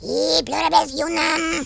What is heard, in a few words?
fireball, Horror